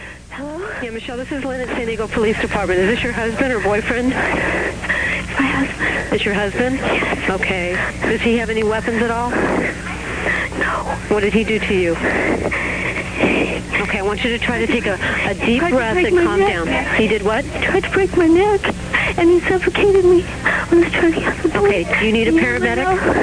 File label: Cant Breathe, 911 Calls